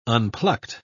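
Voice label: "unplucked", British English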